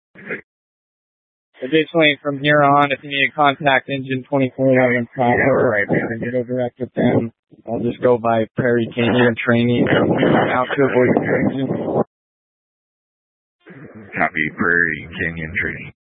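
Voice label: Incident Tac 1, Scanner audio recording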